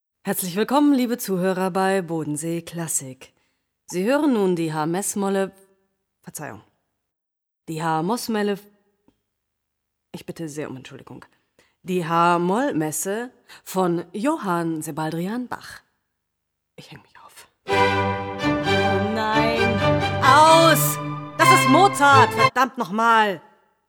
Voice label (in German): sprecherin